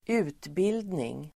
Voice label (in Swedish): "utbildning", Swedish